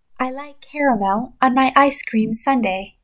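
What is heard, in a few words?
"I like caramel on my ice cream sundae", English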